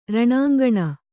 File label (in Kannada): "ರಣಾಂಗಣ", Kannada